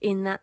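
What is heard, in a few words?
"in THat", Standard Southern British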